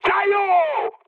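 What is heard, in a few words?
enemy detected, mood high, French; War Thunder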